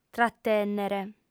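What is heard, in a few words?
"tratènnere", Sardinian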